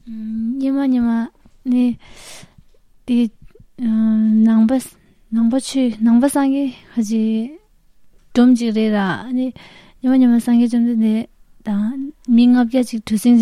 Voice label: tibetisch

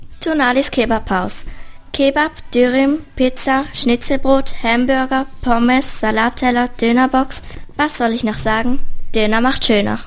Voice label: Werbung Kebab; Werbung Metzgerei Kohler; Sirnach

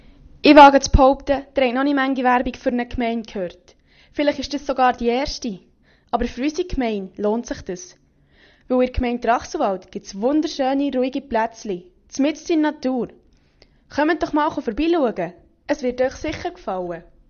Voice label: Jingle; Gemeindeverwaltung Trachselwald

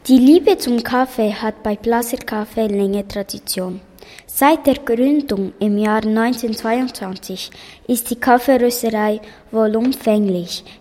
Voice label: werbung, Kaffee; muristalden2019; campus-muristalden; blaser

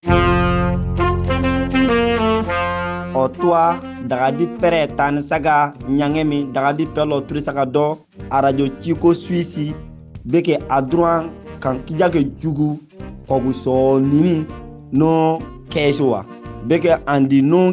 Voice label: jingle, fulfulde

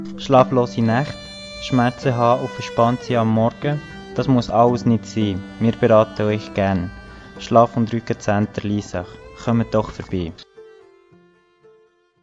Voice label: werbespot; schlafhaus

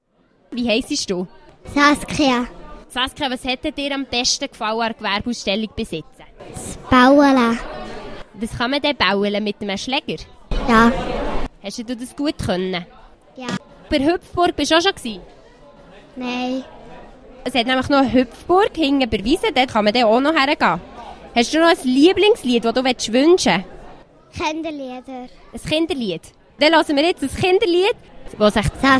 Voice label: Anmodi Chinderlied

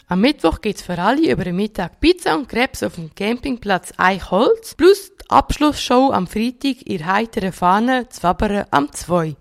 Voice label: Werbung; Pizzatag und Abschlussshow

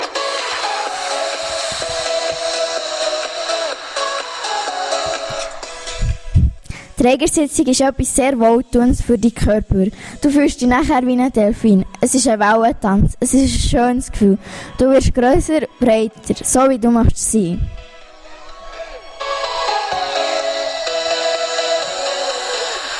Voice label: Werbespot; Trager; Baeriswil BE

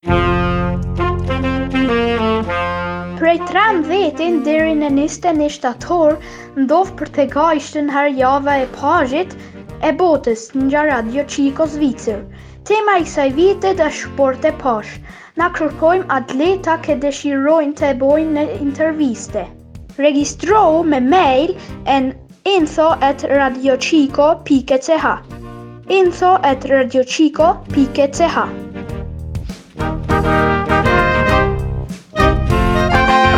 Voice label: jingle, albanisch

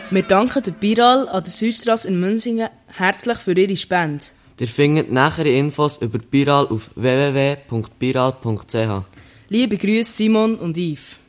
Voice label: Werbespot; Biralpumpen; Muensingen

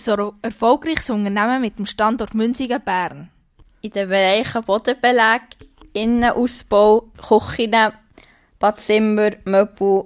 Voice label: Werbespot Schreinerei; Team Graf Schreinerei; Muensingen

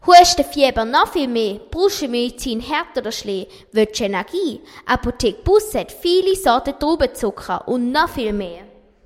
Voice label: Werbung Jingle Apotheke; Apotheke Busse, Unterseen

